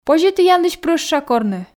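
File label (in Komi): позьӧ тіянлысь прӧща корны, Komi, female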